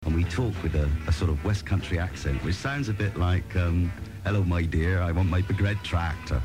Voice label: accent